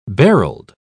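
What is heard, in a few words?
"barreled", British English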